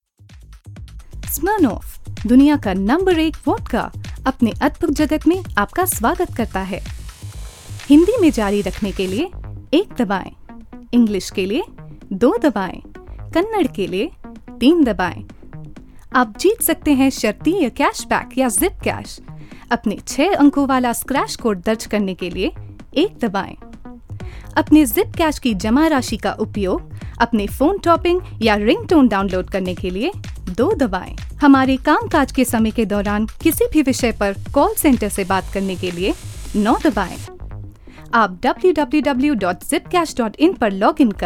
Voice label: IVR hindi, female

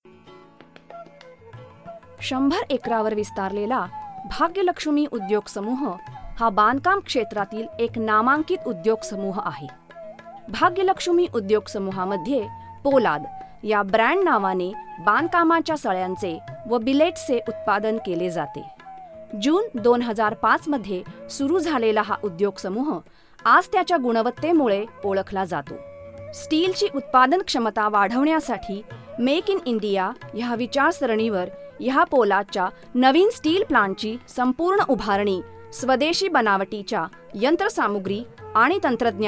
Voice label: corporate marathi

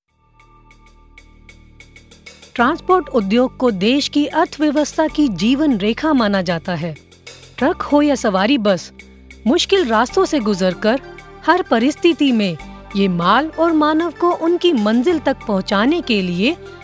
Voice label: Corporate Hindi

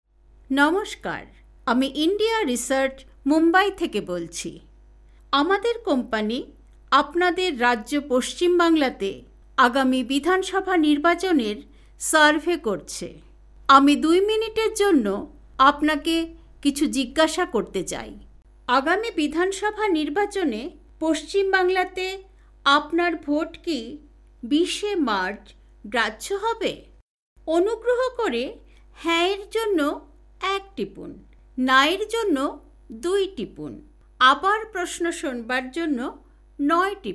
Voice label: ivr bengali

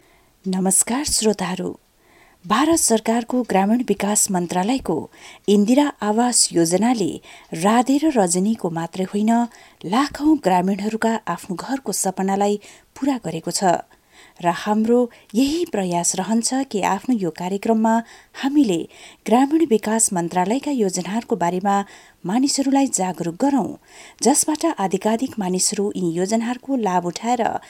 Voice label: narration nepali

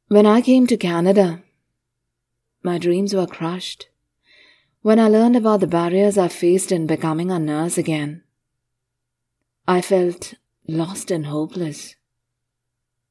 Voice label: English advert

